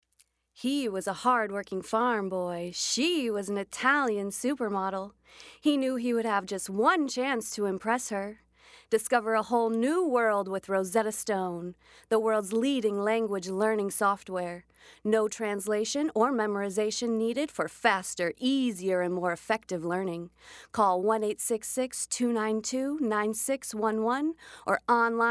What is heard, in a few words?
voice over; rosetta stone